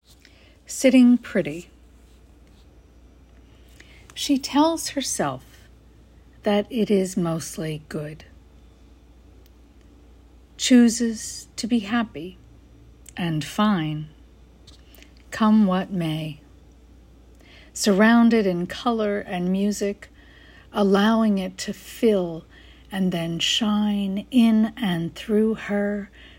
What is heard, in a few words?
poem